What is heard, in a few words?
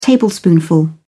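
"tablespoonful", British English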